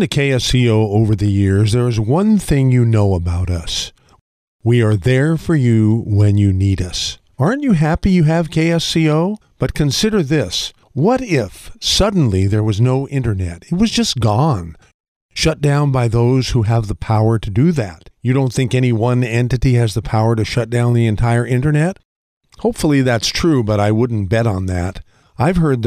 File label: commentary election radio